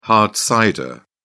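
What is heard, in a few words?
"hard cider", British English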